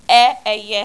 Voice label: "E EYE", Yoruba; ALPHABET RHYME IN BITS